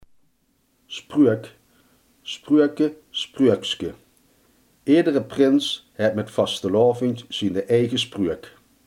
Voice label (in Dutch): "spreuk", Limburgs